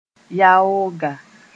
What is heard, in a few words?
"yaooga", Mooré